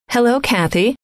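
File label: hello, female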